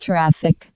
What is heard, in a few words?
"traffic", tcas, female; fgdata